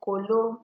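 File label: "kolo", Nigerian Pidgin, female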